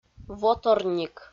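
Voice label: "воторник", Komi, female; audio dict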